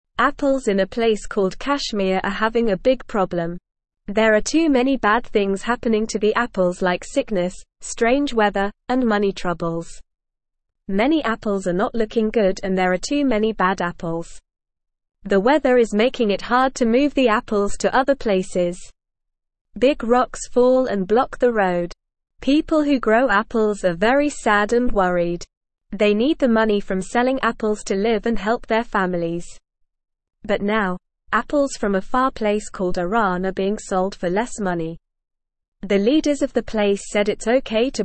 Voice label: English Newsroom Beginner NORMAL Reading; Troubles for Apples in Kashmir Bad Weather Money Woes